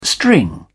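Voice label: "string", British English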